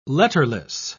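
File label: "letterless", British English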